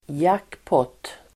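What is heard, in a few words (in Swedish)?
"jackpot", Swedish; Uttal: [²jak:påt:]; högsta vinst i spel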